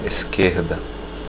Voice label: left, portuguese